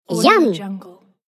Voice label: cartoon voice yum